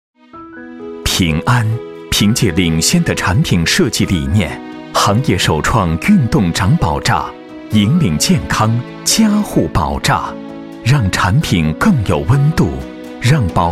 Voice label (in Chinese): 宣传; 平安保险